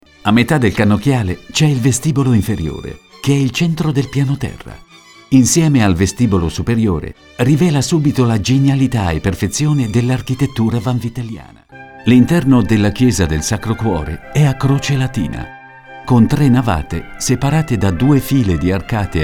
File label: audioguide